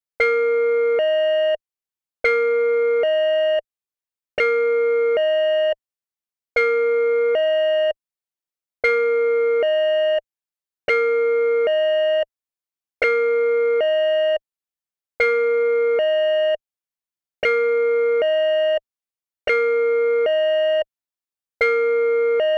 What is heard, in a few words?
AIRHOST EMERGENCY ALERT